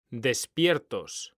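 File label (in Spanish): "despiertos", Spanish; mekanta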